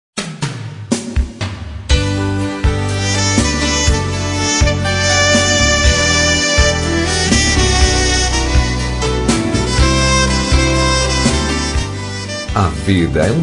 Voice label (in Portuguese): Mensagem Feliz Aniversario - Voz Masculina